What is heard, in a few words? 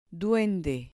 "duende", Spanish